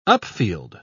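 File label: "upfield", British English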